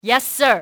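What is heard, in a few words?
"yes sir", english, female; XBOX; enemy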